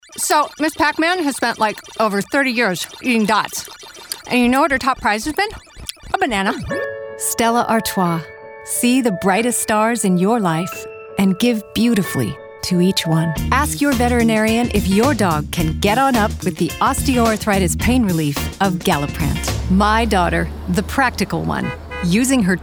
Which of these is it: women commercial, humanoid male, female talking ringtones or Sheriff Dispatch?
women commercial